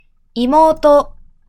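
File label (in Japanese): "妹" Japanese, male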